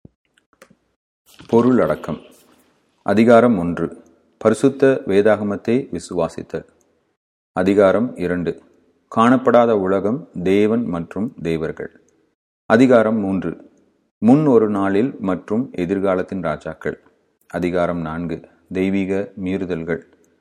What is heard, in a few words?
supernatural, tamil